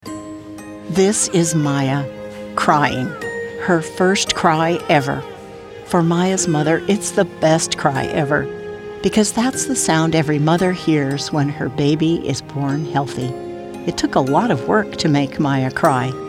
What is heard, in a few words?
Narration